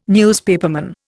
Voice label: "newspaperman", Indian

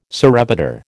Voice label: "surrebutter", American

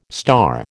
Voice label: "star", American

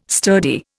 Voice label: "sturdy", Indian

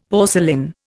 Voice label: "porcelain", Indian; Dictionary

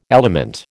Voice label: "element", American

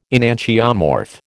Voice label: "enantiomorph", American

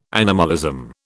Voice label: "animalism", British

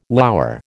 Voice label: "lour", American

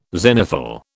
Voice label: "zenithal", British

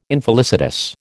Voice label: "infelicitous", American

